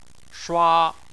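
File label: "shua" tone 1, Chinese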